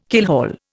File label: "keelhaul", Indian